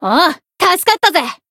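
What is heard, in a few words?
Voice healed, Japanese; kid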